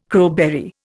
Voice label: "crowberry", Indian

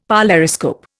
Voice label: "polariscope", Indian; Dictionary

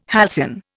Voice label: "halcyon", Indian

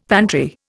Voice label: "pantry", Indian; Dictionary

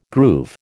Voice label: "groove", American

